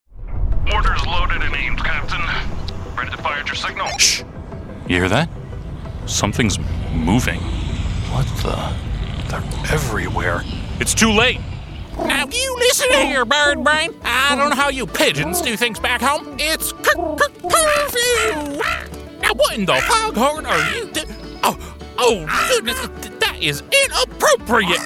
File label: VO animation